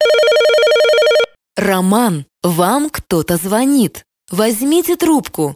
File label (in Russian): muzhskie imennye zvonki